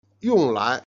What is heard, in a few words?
"jian", Chinese